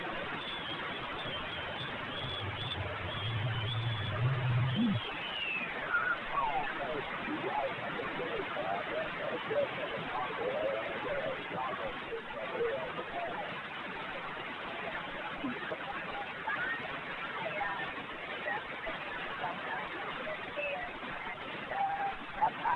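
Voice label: qso re left hand right hand circularity antenna switch; 2-way QSO recording on XW-2d talking about receiving UHF sats and using RH/LH CP antenna switch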